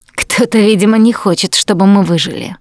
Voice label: going to die, russian; Producer

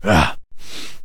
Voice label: man mumble; Mount&Blade Warband

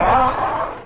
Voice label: feral strike vox; Beyond the Sword; Units

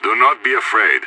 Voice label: scared emote; idf

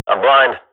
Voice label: radio bot post flash, Counter-Strike swat; Global Offensive; player vo

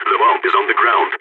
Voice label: spotted loose bomb, balkan; Counter-Strike Global Offensive

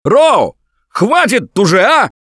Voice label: friendly fire, russian, Survivor Voice; producer; Left 4 Dead 2; Gambler

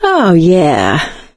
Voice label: pain relief first aid, survivor voice; Left 4 Dead 2; producer

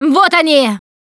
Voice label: incoming, russian, Survivor Voice Producer

